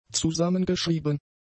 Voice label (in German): "zusammengeschrieben", German; adjectives